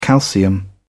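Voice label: "calcium", English, British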